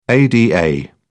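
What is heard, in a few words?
"ada", English, British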